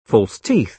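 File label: "false teeth", British English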